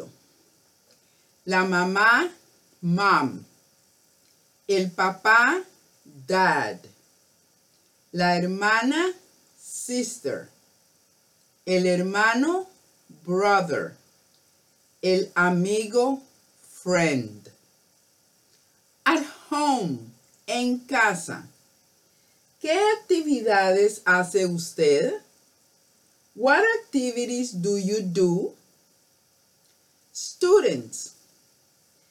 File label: Having Fun Outdoors Spanish